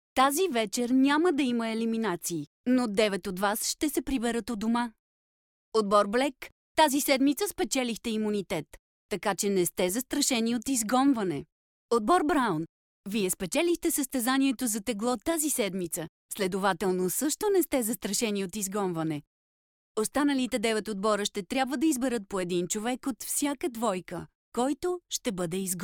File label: bulgarian f narration, voice